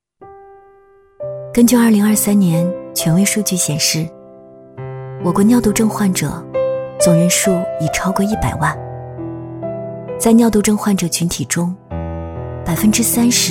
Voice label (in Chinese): 女-走心旁白-年轻稳重; 尿毒症患者独白; 女B25-走心旁白【尿毒症患者独白-年轻稳重】